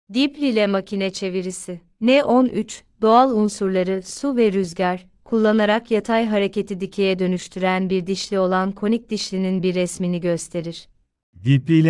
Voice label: turkish